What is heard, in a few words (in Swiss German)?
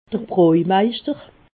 "brojmeischter", Alsace